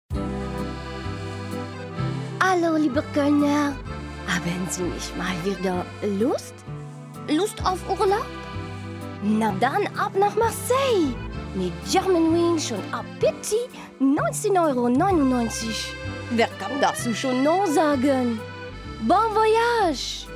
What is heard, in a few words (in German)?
Durchsage; Koeln